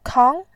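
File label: "kang2", Mandarin Chinese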